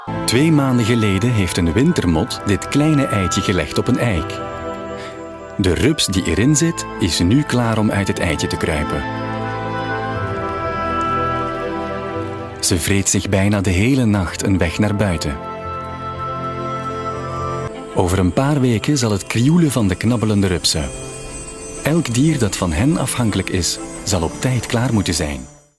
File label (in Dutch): Documentaire